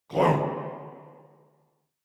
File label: Banter; Warframe; Clem; en